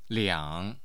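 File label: "liang", Mandarin Chinese, tone 3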